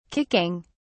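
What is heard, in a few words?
"kicking", British English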